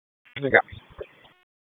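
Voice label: Police Southwest District Disp, radio; Raleigh; wake p25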